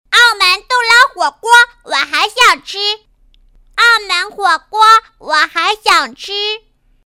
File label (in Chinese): 女 童声 小女孩